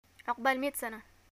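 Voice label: greeting happy birthday, Yemeni Arabic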